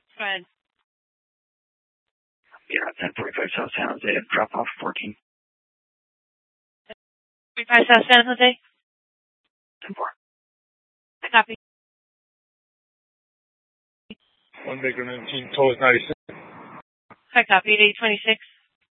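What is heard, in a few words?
police dispatch, scanner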